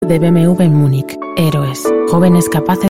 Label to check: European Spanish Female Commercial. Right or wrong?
Right